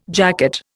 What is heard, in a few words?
"jacket", Indian